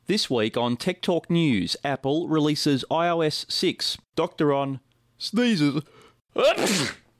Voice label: news blooper